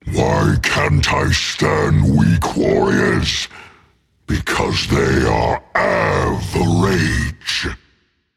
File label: joke rage; chaac